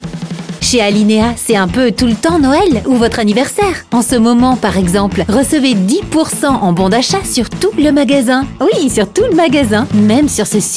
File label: Instore; ALINEA